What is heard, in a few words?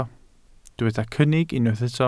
"cynnig", welsh